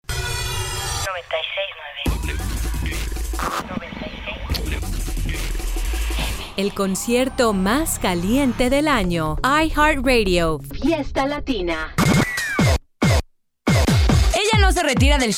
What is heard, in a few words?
spanish mexican promos